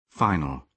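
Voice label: "final", British English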